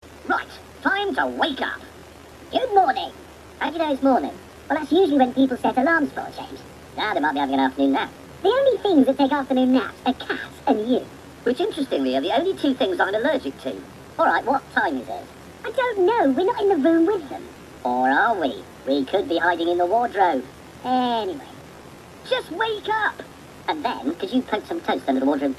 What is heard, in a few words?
wake up 2 englishmen high fast